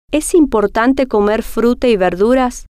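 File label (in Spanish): es Importante Comer Fruta Y Verduras, spanish, female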